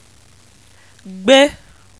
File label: "gbe", Yoruba